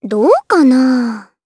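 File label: voice, Japanese; Annette; kingsraid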